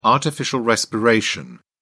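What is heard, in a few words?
"artificial respiration", English, British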